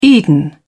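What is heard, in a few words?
"eden", British English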